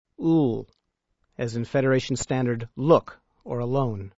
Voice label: Klingon sounds l, Letter l(small L), as in look